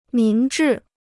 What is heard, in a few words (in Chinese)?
"明智", Chinese